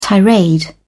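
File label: "tirade", British English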